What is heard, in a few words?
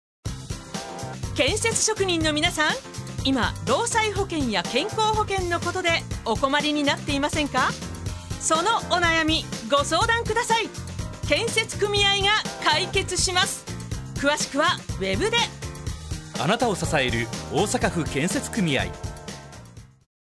radio; osaka